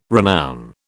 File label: "renown", British